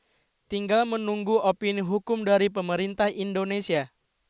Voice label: news